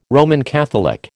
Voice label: "Roman Catholic", American

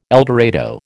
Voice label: "eldorado", American

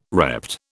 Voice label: "repped", British

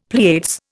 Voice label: "Pleiades", Indian; Dictionary

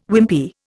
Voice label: "Wimpy", Indian

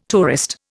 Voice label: "tourist", Indian

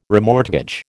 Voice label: "remortgage", American

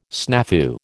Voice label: "snafu", American

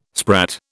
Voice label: "sprat", British

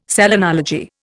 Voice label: "selenology", Indian

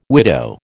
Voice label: "widow", American

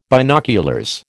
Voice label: "binoculars", American

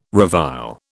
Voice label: "revile", British

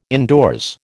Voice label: "indoors", American

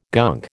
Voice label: "gunk", American

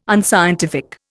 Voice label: "unscientific", Indian

